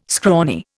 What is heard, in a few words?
"scrawny", Indian